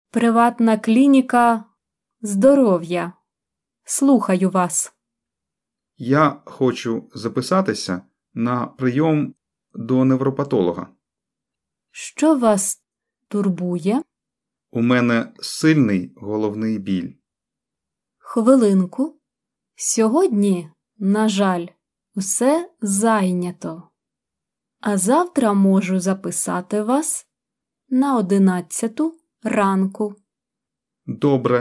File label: Dialogue Slow